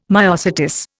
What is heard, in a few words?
"myosotis", Indian